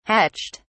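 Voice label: "hatched", British English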